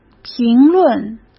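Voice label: "评论", Mandarin Chinese; hsk; chinese-flashcards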